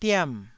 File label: "diam4", taiwanese syllable